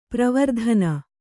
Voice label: "ಪರವರ್ತನ", Kannada

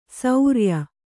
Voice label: "ಸೌರ್ಯ", Kannada

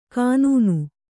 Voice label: "ಕನ್ನ", kannada

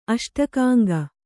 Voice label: "ಅಷ್ಟಕಾಂಗ", Kannada; aṣtakāŋga; noun

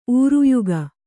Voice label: "ಊರುಯುಗ", Kannada

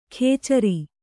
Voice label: "ಖೇಚರಿ", Kannada

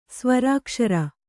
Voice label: "ಸ್ವರಾಕ್ಷರ", Kannada

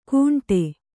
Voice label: "ಕುಂಟೆ", Kannada

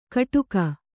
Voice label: "ಕಟುಕ", Kannada

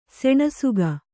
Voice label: "ಸೆಣಸಿಕೆ", Kannada; seṇasike; noun; = ಸೆಣಸಾಟ.

